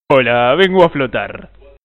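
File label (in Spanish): "hola", Spanish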